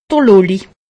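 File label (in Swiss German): "nuller", Alsatian; medecine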